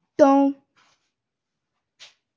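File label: "dong1", Mandarin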